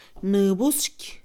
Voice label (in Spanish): "espuma", Mixe, Mujer; INALI